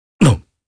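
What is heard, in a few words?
Vox Japanese; Kain; kingsraid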